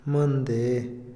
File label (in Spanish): "ayer", Otomí, hombre; INALI